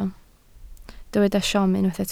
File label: "siom", Welsh